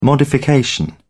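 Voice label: "modification", British English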